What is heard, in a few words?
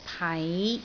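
"pai5", Cantonese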